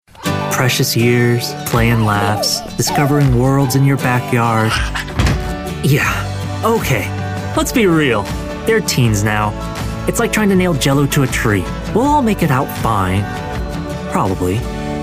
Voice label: Commericial